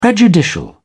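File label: "prejudicial", English, British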